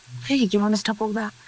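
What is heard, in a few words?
voice acting, es, female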